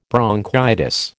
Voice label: "bronchitis", American

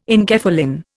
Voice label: "enkephalin", Indian

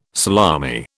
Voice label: "salami", British

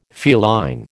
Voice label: "feline", American

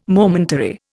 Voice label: "momentary", Indian

